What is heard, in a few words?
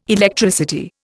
"Electricity", Indian